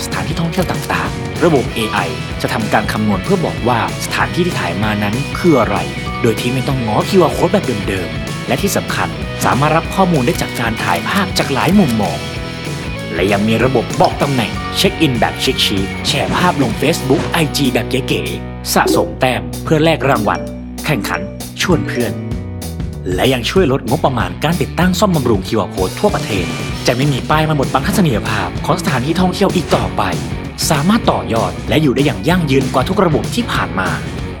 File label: thai